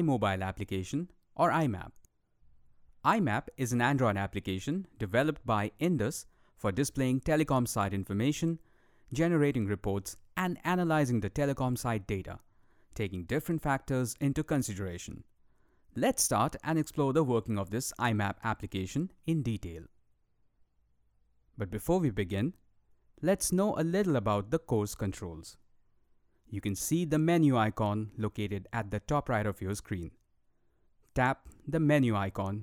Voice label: English E-Learning

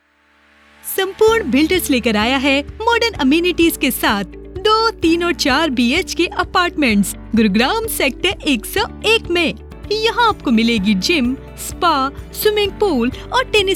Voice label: advertisment hindi

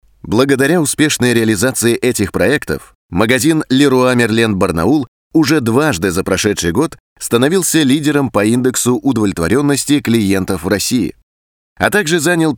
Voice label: narration russian

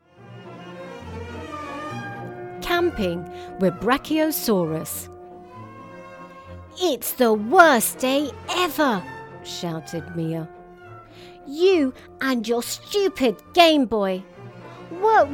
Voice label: story uk eng

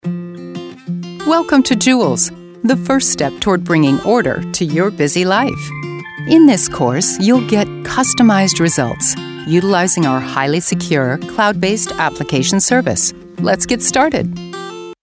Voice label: E-Learning

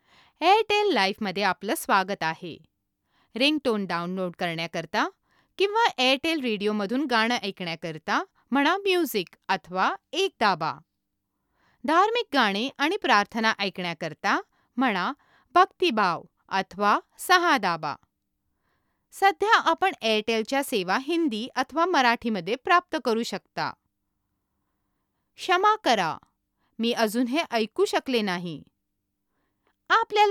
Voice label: Marathi; airtel

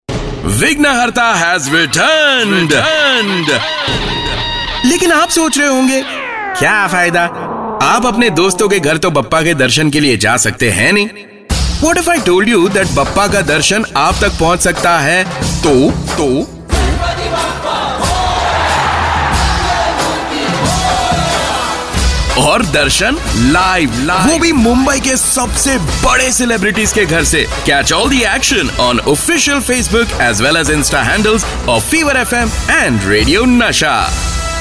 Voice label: radio HINDI

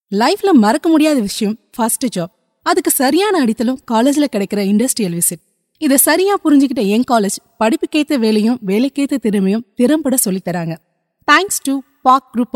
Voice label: promo tamil

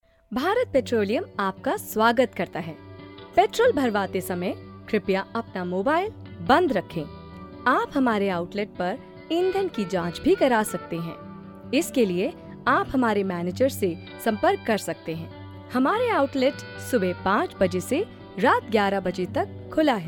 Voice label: announcements hindi; BP

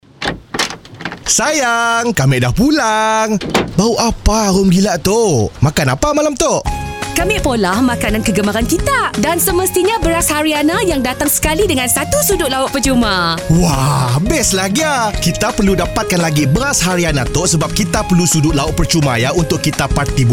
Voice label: commercial malay

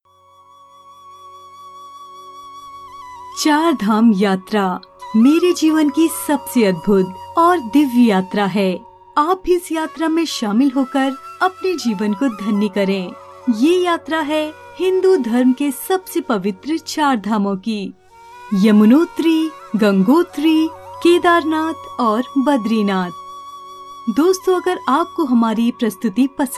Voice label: narration hindi

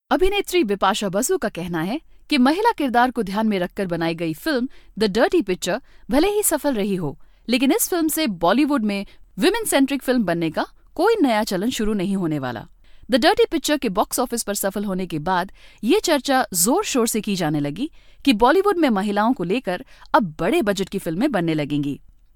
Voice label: narration hindi

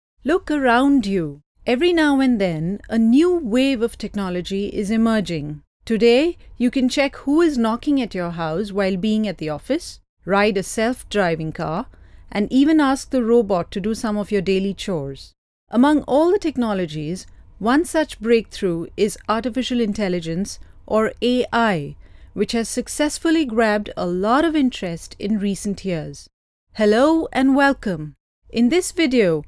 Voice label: Elearn Eng

